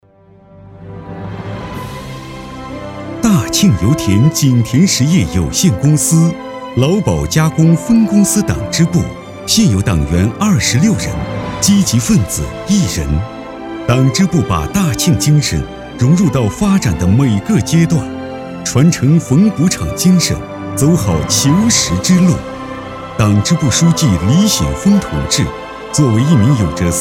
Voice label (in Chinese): 男 专题 党支部类